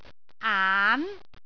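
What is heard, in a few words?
"aan2", Cantonese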